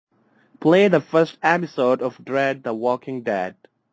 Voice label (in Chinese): 美式英语